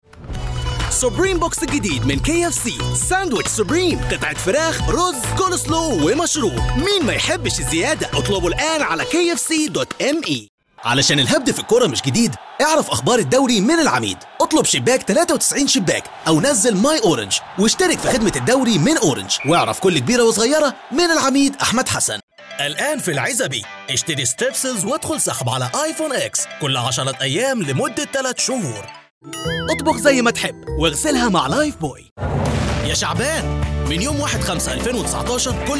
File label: Egyptian